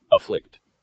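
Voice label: "afflict", US English; pronunciations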